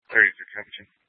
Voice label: Police Department Dispatch, scanner